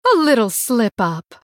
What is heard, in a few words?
female blood elf oops